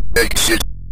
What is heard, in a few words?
menu exit, Synth Voice; Kenney's Sound Pack; Synth Voice 1